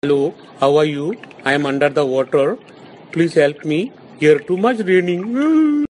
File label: help me underwater; memefactory